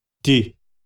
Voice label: "ti", xavante

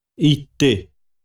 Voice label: "ĩte", xavante; posse dele

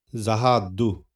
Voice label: "dzahadu", xavante

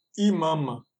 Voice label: "ĩmama", xavante

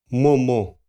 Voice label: "momo", xavante; onde

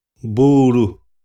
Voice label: "buru", xavante; roça

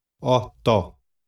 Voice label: "oto", xavante; já/agora

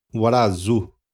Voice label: "waradzu", xavante

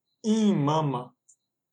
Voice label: "ĩ̱mama", xavante; meu pai

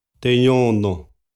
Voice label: "te nhono", xavante

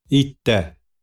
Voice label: "ĩté", xavante; novo

